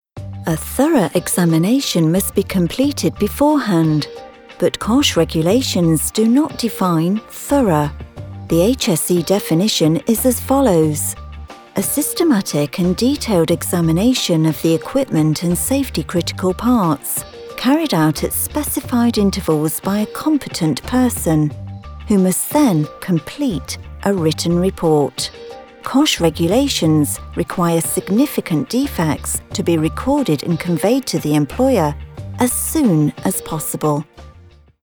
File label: Explainer Informative